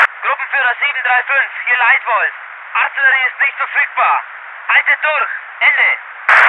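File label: voice german infantry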